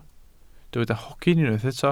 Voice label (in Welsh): "hogyn" Welsh